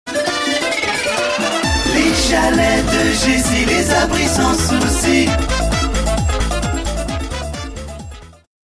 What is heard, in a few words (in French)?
radiopub spot; construction et equipements